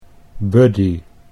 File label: "buddy", Dutch English